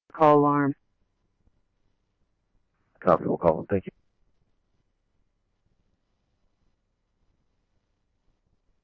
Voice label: Phoenix Fire, scanner; PHXFD K2; RWC; Thompson Peak High

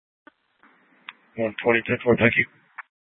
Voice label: Sheriff, scanner; Maricopa County (P25) - Thompson Peak; Shrf Mnt (Parks)

